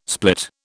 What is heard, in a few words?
"split", British